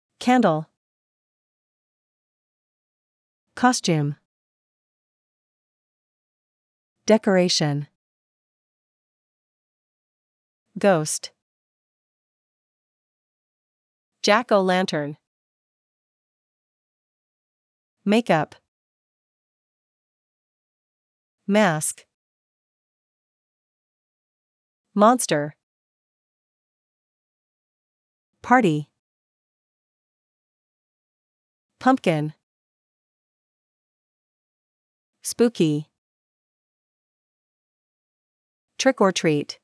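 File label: American; halloween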